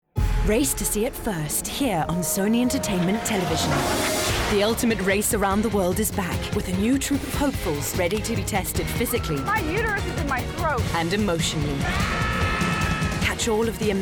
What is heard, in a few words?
Promo; Sony